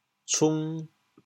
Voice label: "cung2", chaoyang

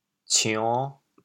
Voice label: "cion5", chaoyang

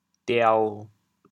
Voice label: "diao7", chaoyang

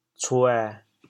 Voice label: "cuê7", chaoyang

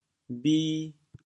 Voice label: "bhi2", chaoyang

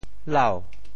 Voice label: "lau2", Teochew; 栳; lǎo; 潮州

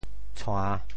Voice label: "tshua~3", Teochew; 潮州; 潮州府城POJ; tshuàⁿ; 调: 帝

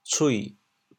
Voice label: "cui3", chaoyang

